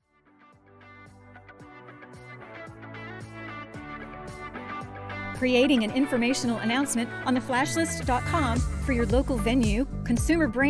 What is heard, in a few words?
Announcements